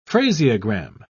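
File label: "phraseogram", British English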